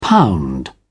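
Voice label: "pound", British English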